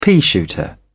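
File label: "peashooter", British English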